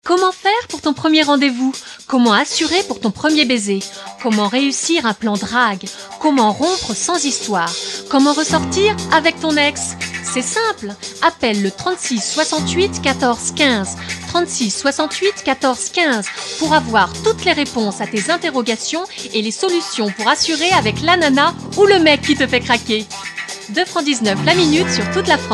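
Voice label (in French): pub, voix off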